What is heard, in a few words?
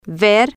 "ver", Spanish